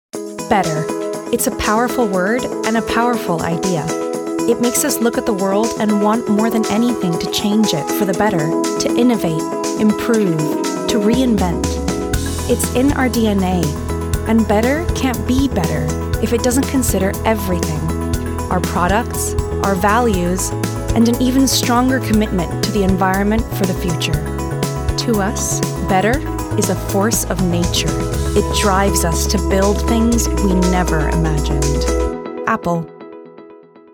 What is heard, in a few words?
Corporate